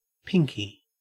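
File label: "pinky", Australian English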